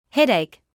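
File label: "headache", British English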